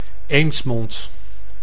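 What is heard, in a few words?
"Eemsmond", Dutch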